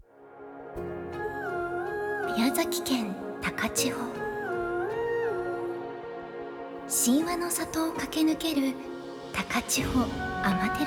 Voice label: narration